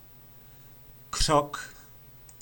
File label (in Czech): "Křok", nářečí Po našimu; Keř